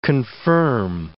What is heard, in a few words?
"confirm", English